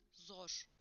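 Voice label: "difficult", Turkish; PictureDictionary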